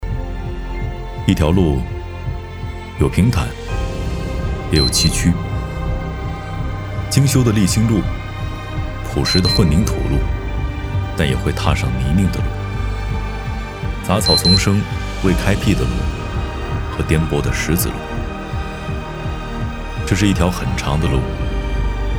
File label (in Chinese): 男 独白 走心 tvc广告